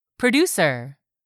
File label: "PRODUCER", English; 3000 words